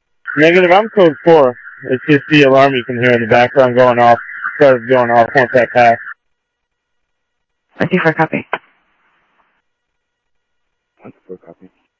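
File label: South Scottsdale Sheriff East County, scanner audio trunk; MCSO - EAST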